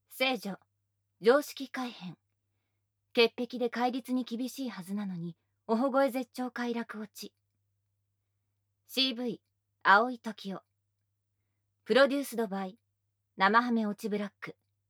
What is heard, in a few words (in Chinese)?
报幕